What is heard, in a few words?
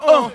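"uh", male; ooh ahh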